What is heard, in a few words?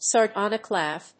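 "sardonic laugh", English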